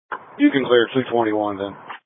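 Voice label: Police Patrol (Dispatch)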